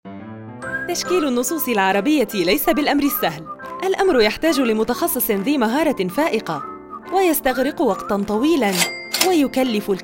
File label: explainer